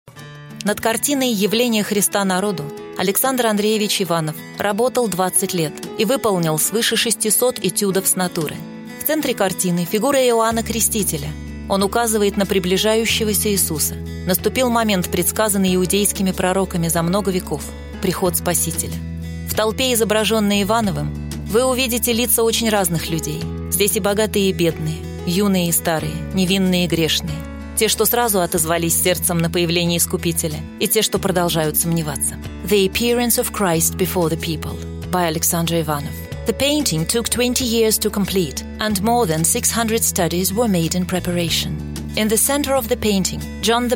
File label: audioguide